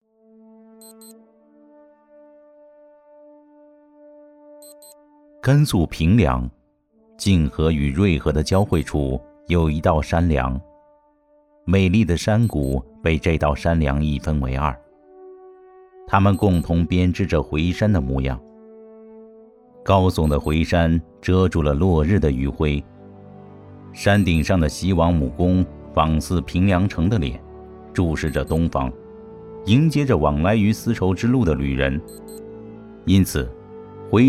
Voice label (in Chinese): 纪录片; 甘肃平凉泾河与汭河不一样的老赵; 喜马拉雅